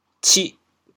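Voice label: "cih8", Teochew, chaoyang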